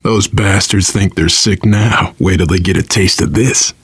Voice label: take auto shotgun, voice, biker; Left 4 Dead 2; left4dead2 dlc3; survivor